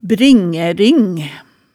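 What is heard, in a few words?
"bringæ-ring", Hemsedal dialect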